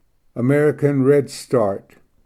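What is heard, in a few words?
"american redstart", English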